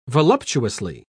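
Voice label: "voluptuously", British English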